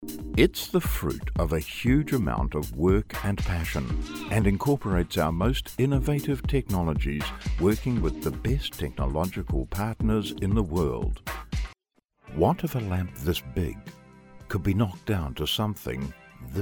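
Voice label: New Zealand English, male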